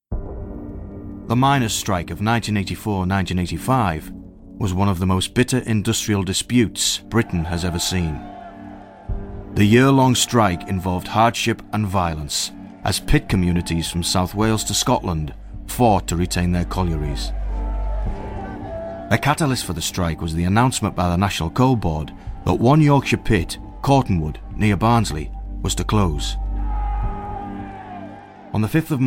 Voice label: Geordie

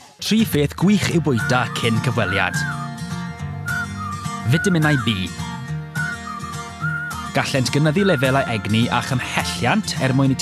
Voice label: Welsh; Welsh, Male, 20s-30s

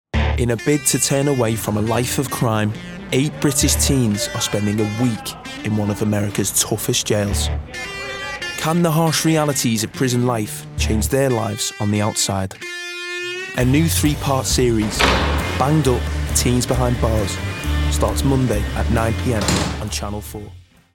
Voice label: Narration, regional accents; 20s-40s. Male. Studio. Liverpool. Based in the US.

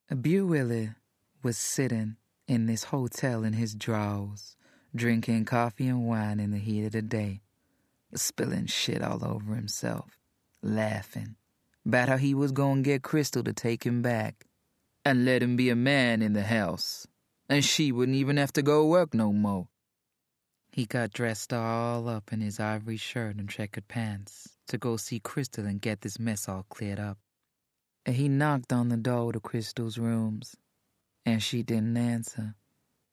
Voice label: Audiobook

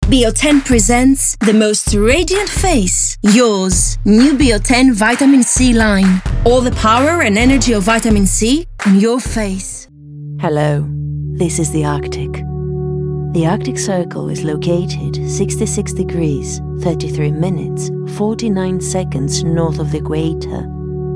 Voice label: English voice

